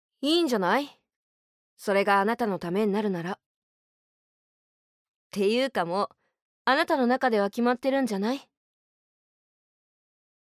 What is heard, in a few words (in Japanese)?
ナチュラル